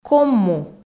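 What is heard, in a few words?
"комму", Komi, female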